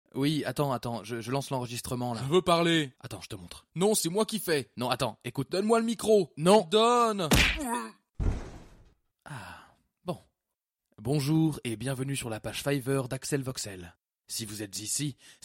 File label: French Male